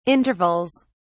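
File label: "intervals", British English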